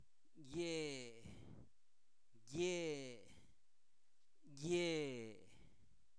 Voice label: aquí, Zapoteco, santo domingo albarradas

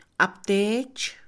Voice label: hombre viejo, Mixe Mujer; Parentesco; INALI

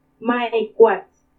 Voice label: "Ma ekuatsï", veinte, Purépecha; Números

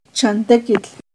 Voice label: tarea-chantequitl, Náhuatl; NAHUATLAHTOLLI

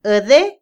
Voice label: Otomí, mujer; oír; Percepción; INALI

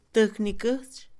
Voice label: techo, Mixe, Mujer; Casa; INALI

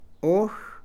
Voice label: tres, Mam; Números; INALI

